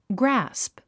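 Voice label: "grasp", English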